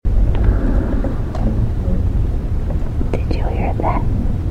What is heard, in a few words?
mid of theater male voice EVP